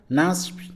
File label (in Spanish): "bajo", Mixe, hombre; Espaciales